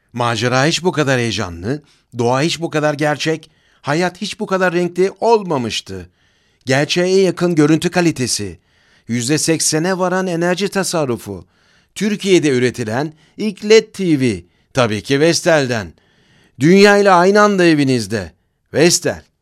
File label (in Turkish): REKLAM